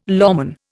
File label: "lawman", Indian